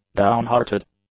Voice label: "downhearted", English; adjectives